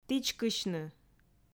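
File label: "тічкысьны", Komi, female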